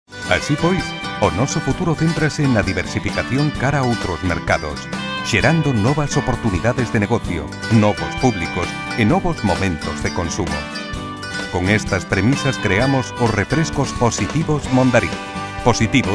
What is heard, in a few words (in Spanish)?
Masculino